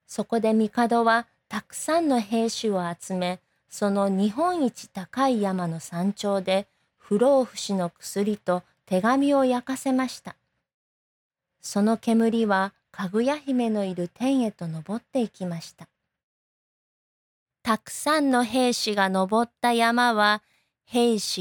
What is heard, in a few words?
Japanese